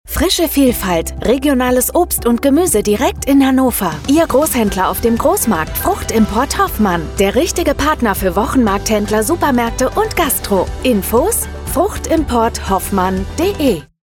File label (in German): Funkspot; Fruchtimport Hoffmann GmbH